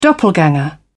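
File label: "doppelganger", British English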